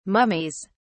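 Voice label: "mummies", British English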